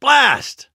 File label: hurt; 巴斯光年